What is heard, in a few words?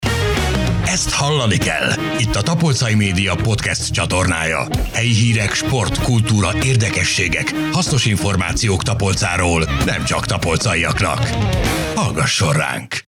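hungarian podcasts, Hombre; hungarian voice actors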